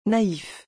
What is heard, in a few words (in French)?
"naïf", French